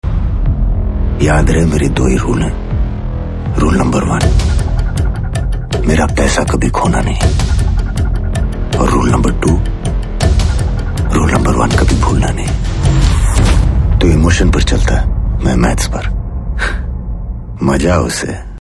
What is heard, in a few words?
Dialogue Ringtone; Baazaar Trailer Saif Ali Khan